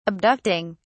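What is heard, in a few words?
"abducting", British English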